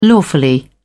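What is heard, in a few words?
"lawfully", British English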